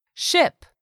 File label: "ship", English; 3000 words